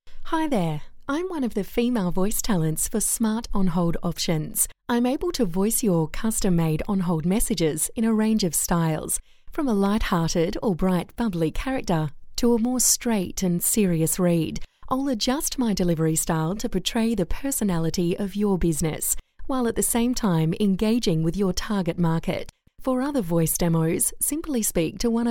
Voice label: FEMALE